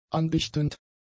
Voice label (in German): "andichtend", German; adjectives